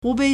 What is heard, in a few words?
"hu2 bei3", Chinese